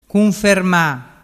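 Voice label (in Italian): "cunferma", Vernazzese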